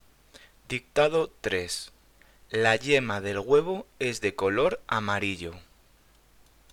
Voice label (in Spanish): Dictado